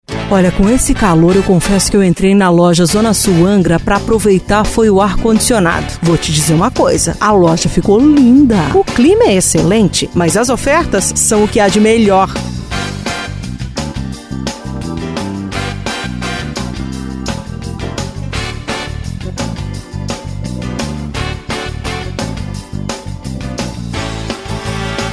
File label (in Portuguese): locutora; Angra dos Reis RJ zona sul